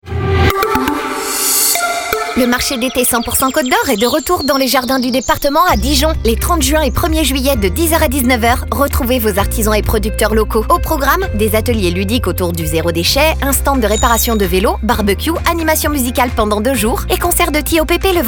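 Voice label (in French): Spot, spot publicitaire pour la radio; Cote-dOr; Marche d'ete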